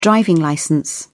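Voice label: "driving licence", British English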